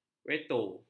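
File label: "veto", Malay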